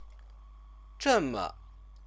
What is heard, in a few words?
"zheme", Chinese; 这 么; such a, so; adverb